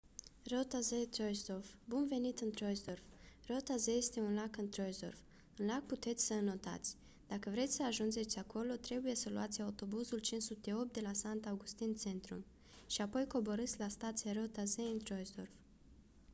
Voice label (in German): Rumanisch; Roter See Troisdorf